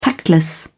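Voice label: "tactless", British English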